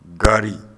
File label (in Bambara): "gaari", Bambara